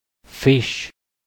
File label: "fisch", de; klettres; tdeedu